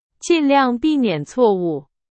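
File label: Chinese; hsk4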